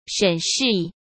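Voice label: "审视", Mandarin Chinese; hsk; chinese-flashcards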